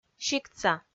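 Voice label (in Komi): "сиктса", Komi, female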